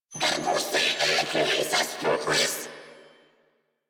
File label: Patrol, Female; en; Warframe; New War; Narmer Grineer